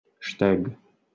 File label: "shteg" Albanian